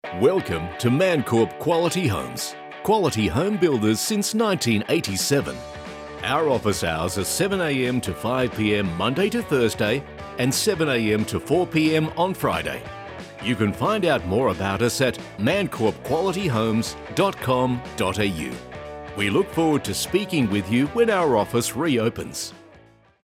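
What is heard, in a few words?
man corporate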